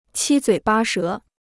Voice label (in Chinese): "七嘴八舌", Chinese